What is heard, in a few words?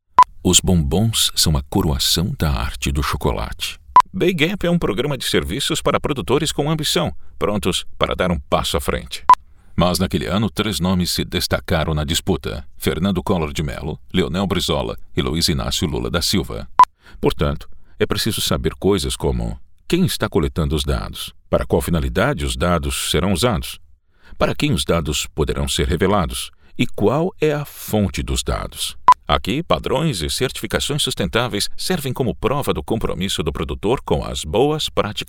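Brazilian Portuguese, Mix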